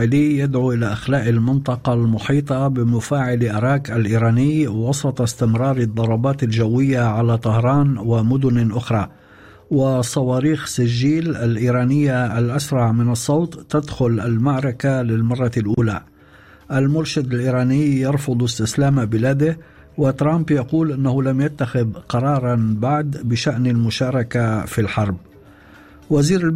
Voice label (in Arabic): arabic, نشرة أخبار المساء